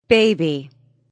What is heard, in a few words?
Woman Says Baby; Woman talking